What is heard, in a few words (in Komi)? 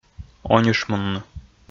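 "онишмунны", Komi, male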